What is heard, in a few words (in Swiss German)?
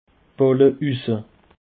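"balleusse", Bas Rhin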